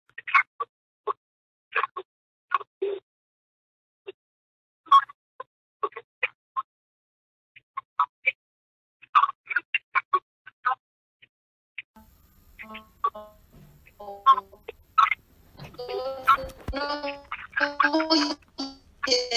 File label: conversation